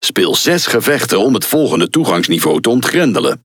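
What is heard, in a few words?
NL Speech Access Level Unlock, Dutch; World Of Warships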